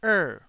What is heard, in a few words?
"err4", Taiwanese